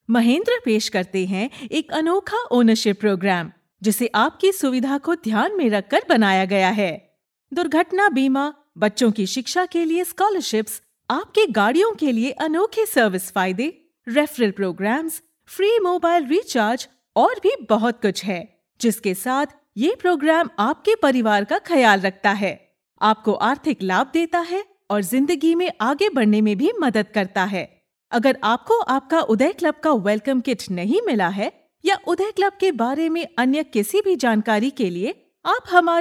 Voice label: IVR Hindi

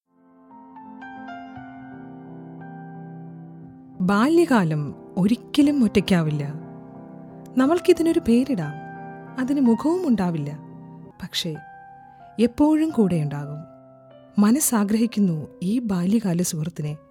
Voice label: promo malayalam; max

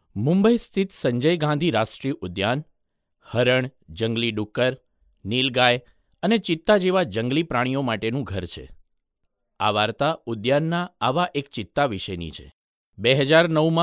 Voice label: narration Gujarati

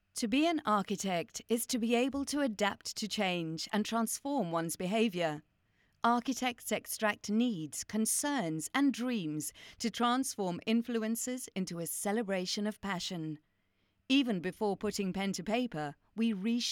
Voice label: Warm corporate

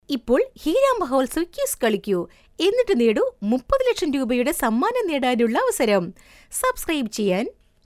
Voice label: IVR Prompt malayalam

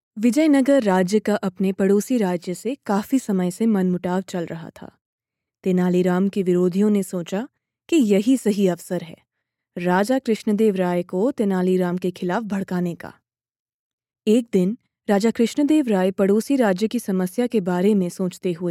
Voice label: story narration hindi

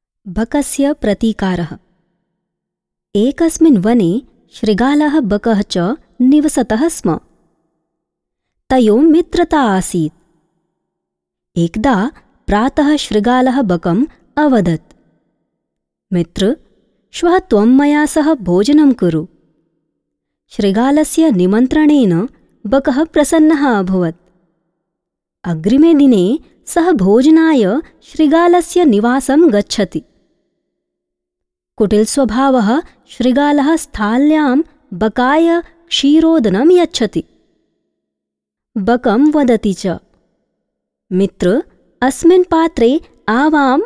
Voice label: narration sanskrit

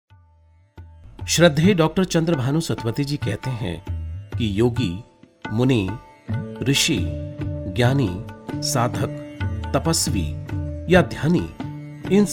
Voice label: audiobook hindi

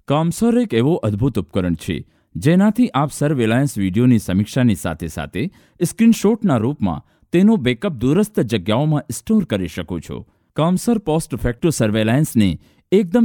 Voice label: gujarati

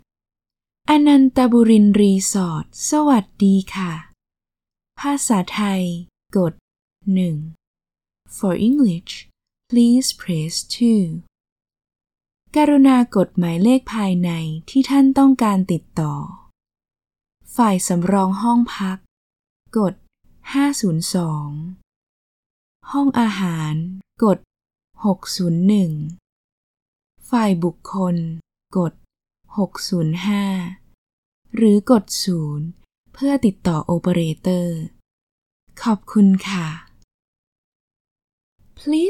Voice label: thai female ivr

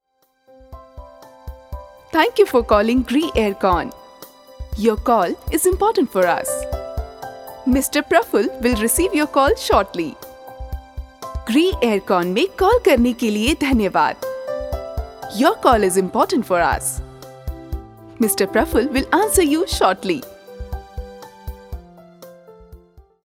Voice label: Hindi

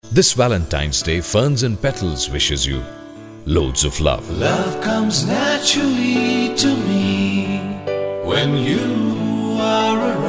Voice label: promo eng

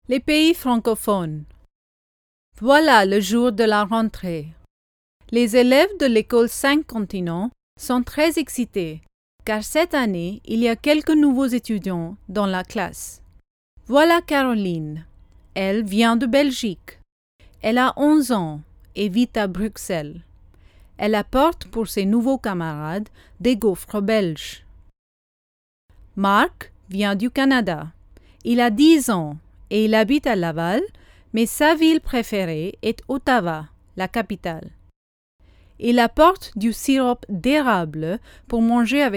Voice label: French

